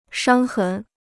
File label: "伤痕", Chinese